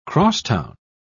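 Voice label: "cross-town", British English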